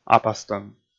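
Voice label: "апастӧм", Komi, male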